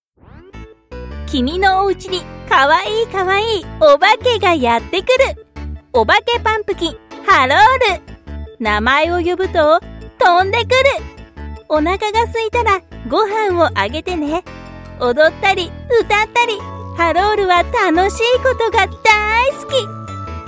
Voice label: Japanese Commercial Kids, Japanese Female